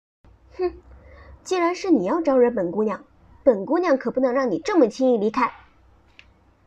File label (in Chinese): 少御音